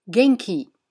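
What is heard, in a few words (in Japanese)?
"元気", Japanese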